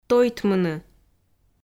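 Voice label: "тойтмыны", Komi, female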